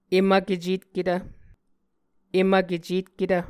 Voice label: "em vkecetketv", muscogee